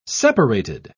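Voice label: "separated", British English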